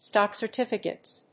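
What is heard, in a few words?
"stock certificates", English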